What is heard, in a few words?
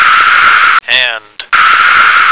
"hand", American